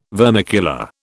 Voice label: "vermicular", British

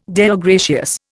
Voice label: "Deo gratias", Indian

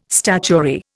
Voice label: "statuary", Indian

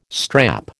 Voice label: "strap", American

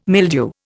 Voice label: "mildew", Indian

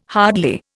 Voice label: "hardly", Indian

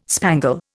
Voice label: "spangle", Indian

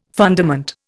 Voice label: "fundament", Indian

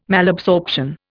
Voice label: "Malabsorption", Indian

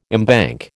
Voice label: "embank", American

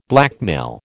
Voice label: "blackmail", American

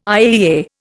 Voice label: "IAEA", Indian

